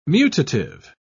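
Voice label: "mutative", British English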